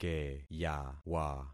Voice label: "keyawa", concat syllables; Affixing experiment

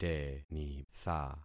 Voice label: "tenitha", concat syllables; Affixing experiment

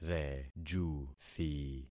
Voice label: "rejuthi", concat syllables; Affixing experiment

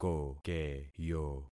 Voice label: "kokeyo", concat syllables; Affixing experiment

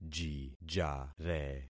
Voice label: "jijare", concat syllables; Affixing experiment

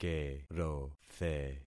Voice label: "kerothe", concat syllables; Affixing experiment

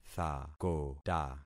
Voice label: "thakota", concat syllables; Affixing experiment

